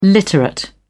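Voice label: "literate", British English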